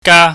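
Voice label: "ga", Cantonese, high falling tone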